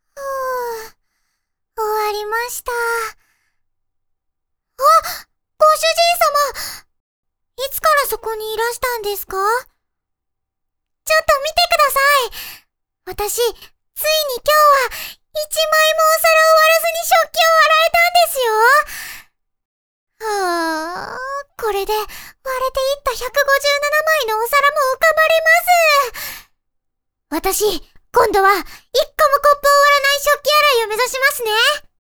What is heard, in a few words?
girl